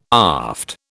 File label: "aft", British